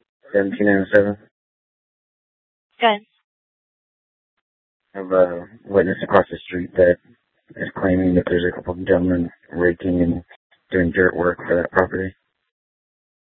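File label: Mesa Police Department Superstition Dispatch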